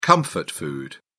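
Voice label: "comfort food", British English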